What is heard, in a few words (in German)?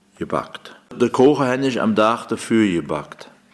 "jebackt" im Satz; heimatfreunde weibern